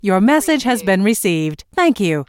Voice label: US female phone prompt Your message has been received - Thank you; lynne publishing